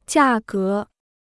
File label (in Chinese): "价格", Chinese